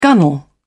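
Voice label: "gunwale", British English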